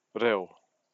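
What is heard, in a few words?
"reo", Māori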